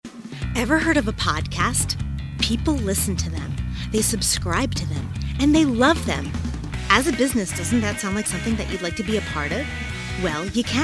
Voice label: Commercial Voice Over Long Form